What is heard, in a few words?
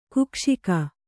"ಕುಕ್ಷಿಕ", Kannada